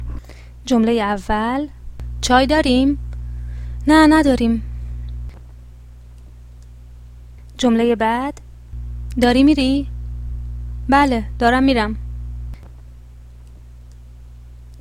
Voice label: pronunciation, Persian